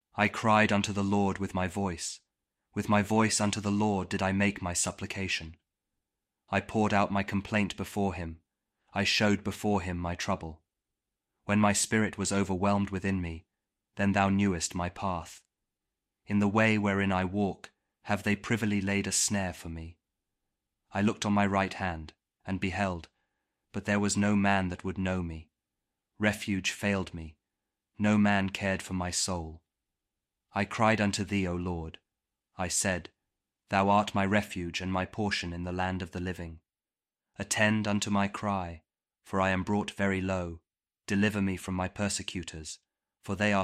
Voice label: psalm kjv king james audio bible; psalm 142